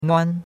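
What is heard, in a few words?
"nuan1" Mandarin Chinese syllable, first tone; Cours de Chinois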